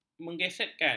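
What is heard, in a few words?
"mengesetkan", Malay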